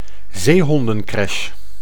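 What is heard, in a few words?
"zeehondencreche", Dutch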